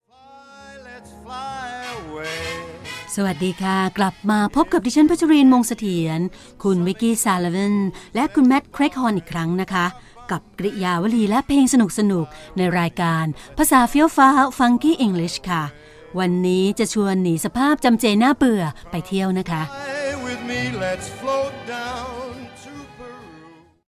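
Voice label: Thai, General; GoLocalise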